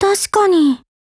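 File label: AGREE Japanese; PSG1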